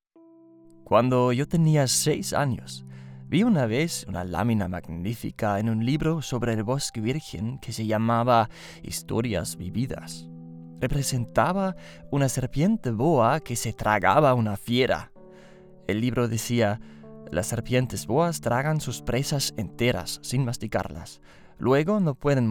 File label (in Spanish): Spanisch; El Principito